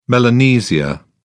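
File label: "melanesia", British English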